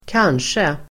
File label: "kanske", Swedish